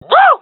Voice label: cheer; Counter Strike Model Pack; CS:GO